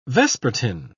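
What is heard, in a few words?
"vespertine", British English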